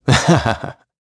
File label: Vox Happy; Siegfried; kingsraid